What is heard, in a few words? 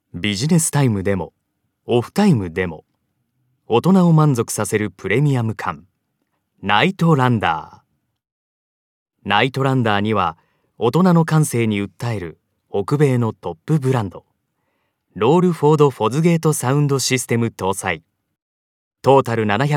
narration